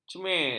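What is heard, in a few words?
"cemer", Malay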